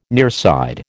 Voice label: "nearside", American